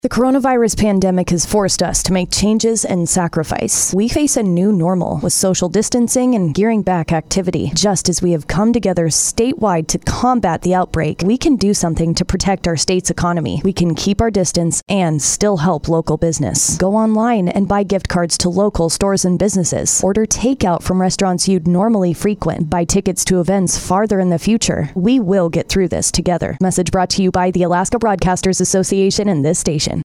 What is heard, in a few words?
female VO